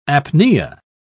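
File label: "apnea", British English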